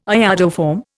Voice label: "iodoform", Indian